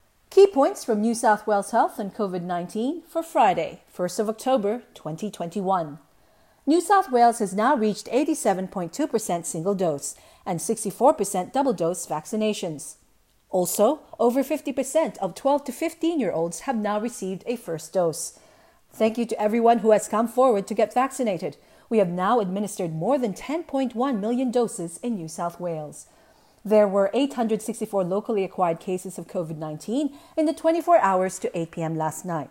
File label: english